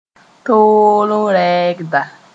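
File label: "tooll rɛɛgda", Mooré; recipient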